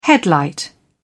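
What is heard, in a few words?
"headlight", British English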